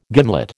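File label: "gimlet", American English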